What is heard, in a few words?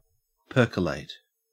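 "percolate" English, Australian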